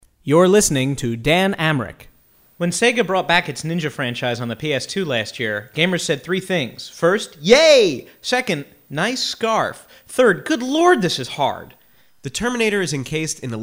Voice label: Announcing Narration